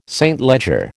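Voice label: "St Leger", American English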